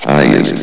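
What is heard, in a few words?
"highest", male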